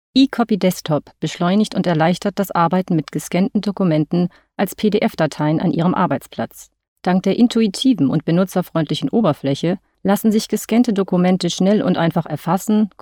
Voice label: Nachvertonung Film Sprecherin Deutsch

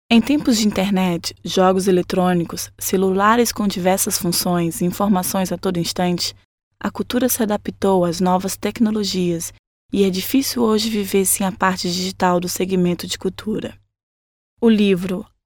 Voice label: Nachvertonung Film Sprecherin Brasilianisches Portugiesisch

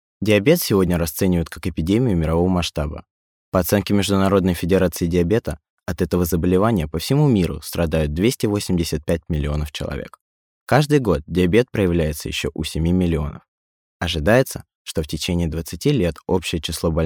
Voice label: Nachvertonung Film Sprecher Russisch

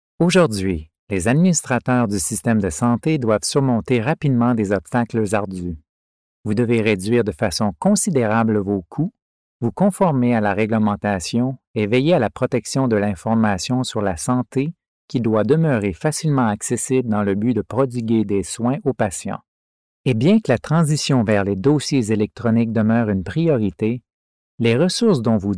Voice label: Nachvertonung Film Sprecher Französisch Can

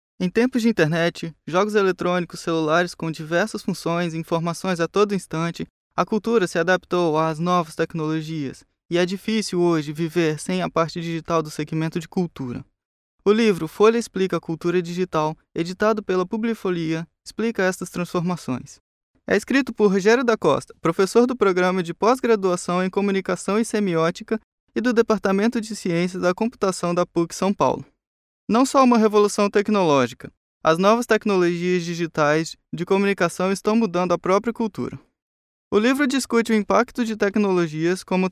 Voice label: Nachvertonung Film Sprecher Brasilianisches Portugiesisch

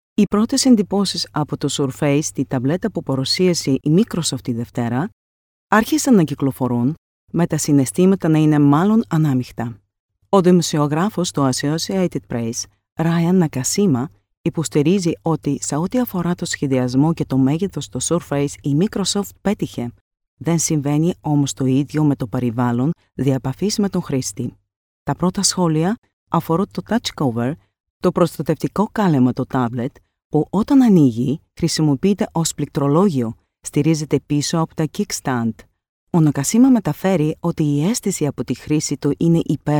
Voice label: Nachvertonung Film Sprecherin Griechisch

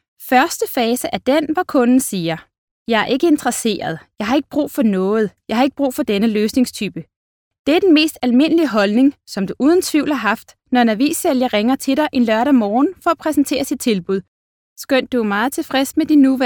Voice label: Nachvertonung Film Sprecherin Dänisch

